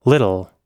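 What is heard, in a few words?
"little", US English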